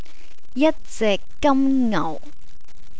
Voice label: "jatzekgamngau", Cantonese

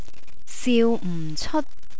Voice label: "siumceot", Cantonese; feeling

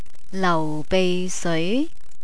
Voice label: "laubeiseoi", Cantonese

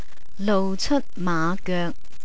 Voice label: "louceotmaagoek", Cantonese

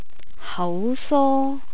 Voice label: "hauso", Cantonese

